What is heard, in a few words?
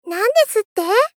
Gentle Angry Nan desutte; Rei Yumesaki